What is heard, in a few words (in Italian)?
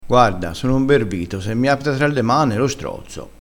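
"imbervito", crespinese dialettale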